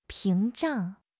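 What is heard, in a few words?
"屏障", Mandarin Chinese; HSK6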